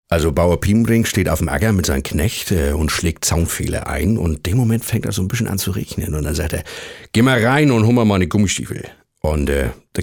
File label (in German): Dialekt Norddeutsch; Fremdtake